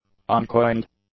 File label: "uncoined", English; adjectives